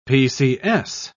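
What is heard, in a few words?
"pcs", British English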